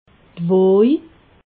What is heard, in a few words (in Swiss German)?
"woj", alsace